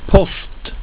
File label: "post", swedish; word of day